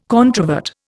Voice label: "controvert", Indian